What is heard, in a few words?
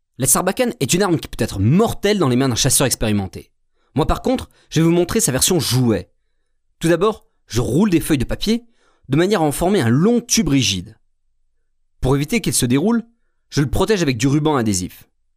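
French, male; lektoring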